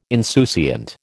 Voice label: "insouciant", American